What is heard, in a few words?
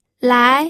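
"lai", second tone, pinyin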